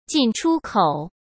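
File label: "进出口", Mandarin Chinese; hsk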